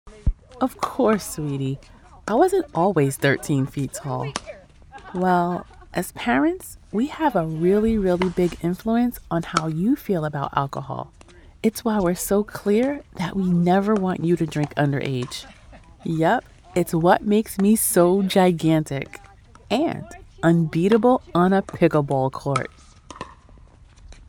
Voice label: Underage Drinking PSA Mom